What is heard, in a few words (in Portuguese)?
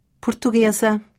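"portuguesa", Portugisisk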